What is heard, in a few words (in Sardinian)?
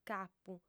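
"capu", female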